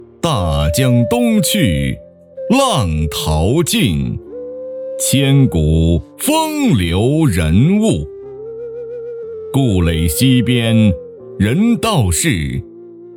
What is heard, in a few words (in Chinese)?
朗诵大江东去